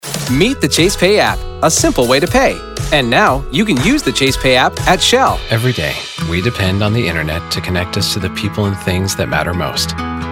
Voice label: highlights commercial